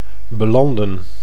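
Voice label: "belanden", Dutch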